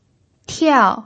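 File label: "tiao", Mandarin Chinese pinyin, fourth tone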